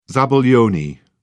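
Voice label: "zabaglione", British English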